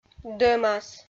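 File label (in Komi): "дӧмас", Komi, female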